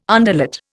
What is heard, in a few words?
"underlet", Indian